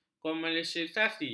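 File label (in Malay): "komersialisasi", Malay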